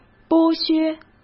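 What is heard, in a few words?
"剥削", Mandarin; chinese-flashcards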